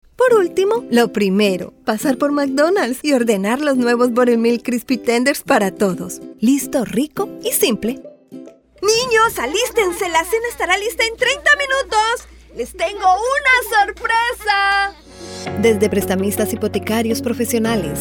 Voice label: commercial women spanish

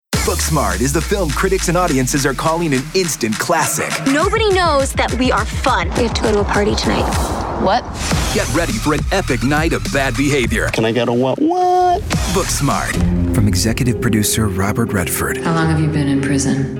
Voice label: trailer men

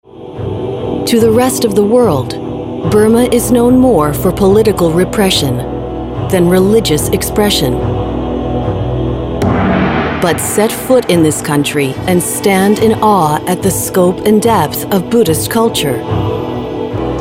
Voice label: narration

